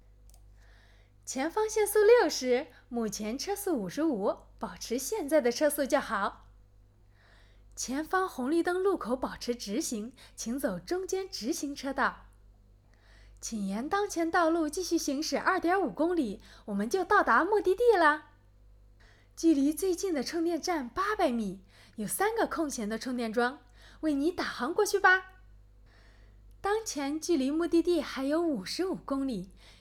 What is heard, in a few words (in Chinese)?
语音助手 默认